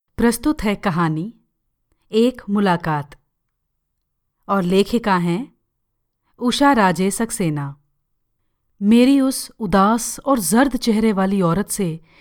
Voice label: hindi audiobooks